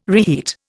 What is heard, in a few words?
"reheat", Indian